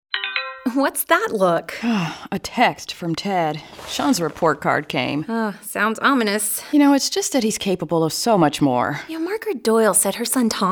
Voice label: Radio